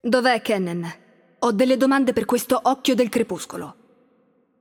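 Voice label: Italian game outro; VO; bloom minigame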